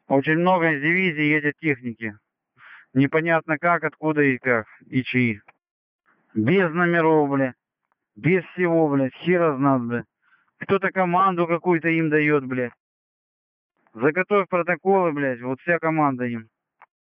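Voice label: military soft obscene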